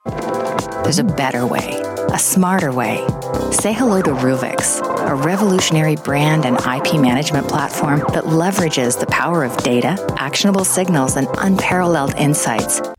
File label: Voice Over Artist Smart Understated; Ruvixx